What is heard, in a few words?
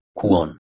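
"kuon", Proto-Indo-European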